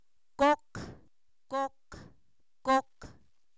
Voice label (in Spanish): cuándo, Zapoteco, san miguel albarradas; preguntas